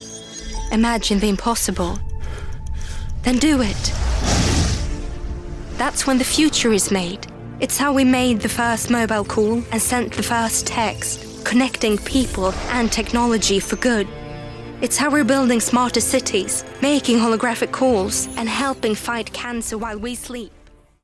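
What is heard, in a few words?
SV AccEN, Swedish accented English; GoLocalise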